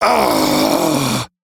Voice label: Death, Male; Warframe; Railjack; Arbiters Hexis